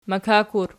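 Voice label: "makak" plural, Archi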